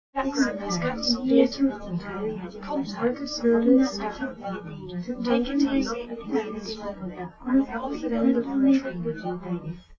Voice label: female live rec 1m sim; SASSEC07

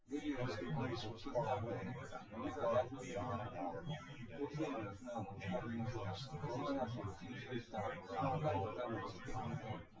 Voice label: male synth conv 250ms 5cm sim

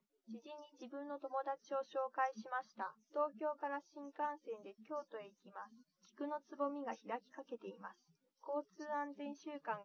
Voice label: test female liverec 130ms 5cm sim; SiSEC08; SiSEC underdetermined

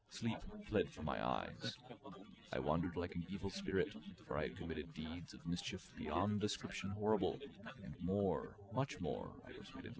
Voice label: male4 inst sim; SiSEC08; SiSEC underdetermined

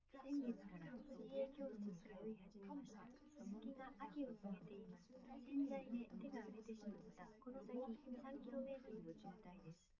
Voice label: female live rec 5cm sim; SASSEC07

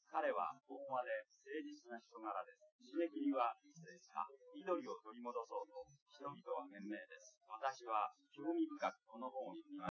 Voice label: male, live rec; SiSEC08; SiSEC underdetermined

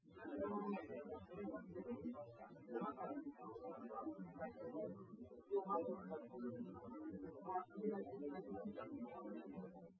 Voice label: male4 liverec 250ms 5cm sim

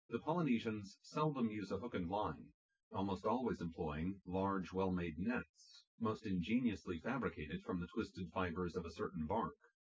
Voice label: test male synth conv, underdetermined; SiSEC10

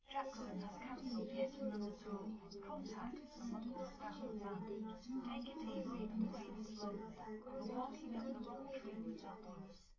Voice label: female liverec; underdetermined; SiSEC11

